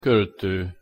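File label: "kolto", Hungarian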